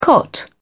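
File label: "cot", British English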